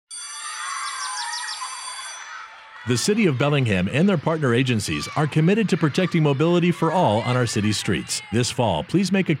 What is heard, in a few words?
Travel With Care Pedestrian Radio PSA